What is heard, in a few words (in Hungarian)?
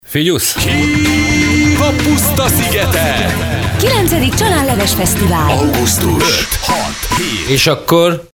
csalanleves reklam